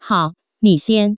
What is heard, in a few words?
hao ni xian, Taiwan Mandarin